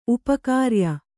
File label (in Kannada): "ಉಪಕಾರ್ಯ", Kannada